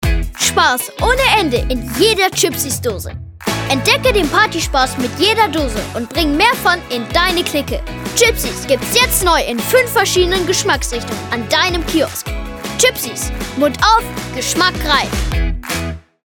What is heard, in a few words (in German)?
Werbung DE; Chipsies